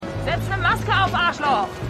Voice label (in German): Passantin, Stimmproben; Shameless